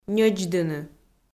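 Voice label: "ньӧдздыны", Komi, female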